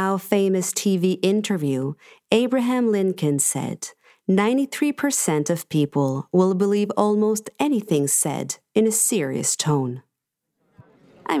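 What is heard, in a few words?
English voice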